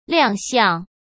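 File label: "亮相", Mandarin; chinese flashcards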